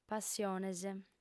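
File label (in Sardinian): "passiones", female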